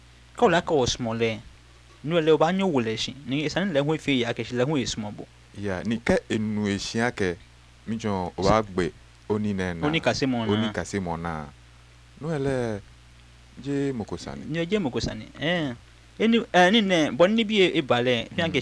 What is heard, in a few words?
conversation, Ga